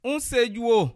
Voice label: i dont know, bassa